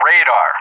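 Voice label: "radar", English; JTAC; DCS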